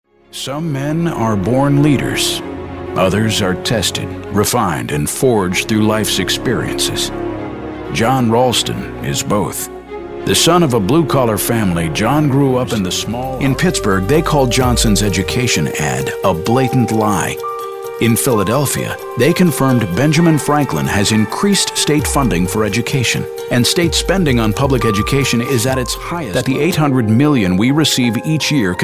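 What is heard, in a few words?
Political, voice-over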